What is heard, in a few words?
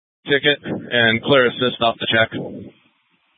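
Incident Tac 3, Scanner audio recording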